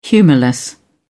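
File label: "humourless", English, British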